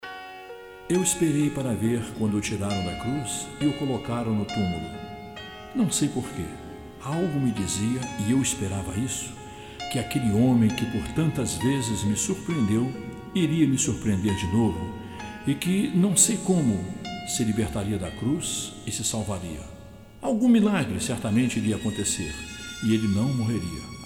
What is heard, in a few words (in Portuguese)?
Narração